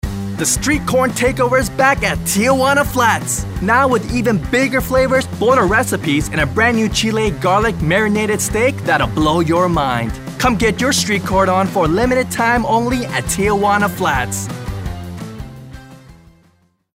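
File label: full of youthful energy; TJ Flats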